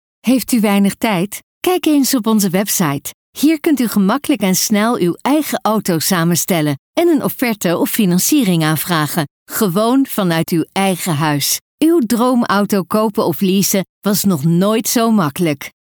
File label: Professional